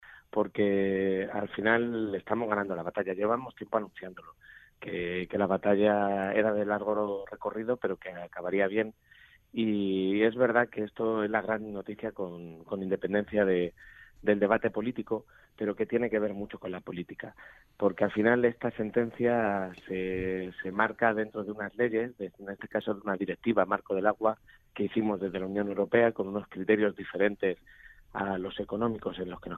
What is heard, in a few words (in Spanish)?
entrevista radio